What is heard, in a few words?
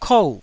"kou4", Taiwanese syllable